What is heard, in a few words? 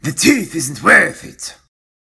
orc trades dealer